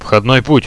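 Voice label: entry track, Russian, radio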